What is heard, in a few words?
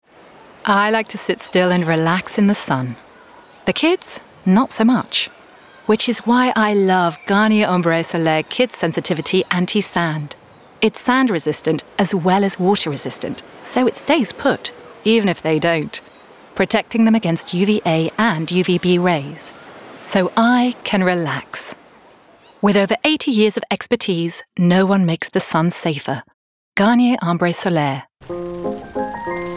Commercial British